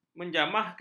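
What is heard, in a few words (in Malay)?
"menjamahkan", Malay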